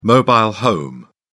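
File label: "mobile home", British English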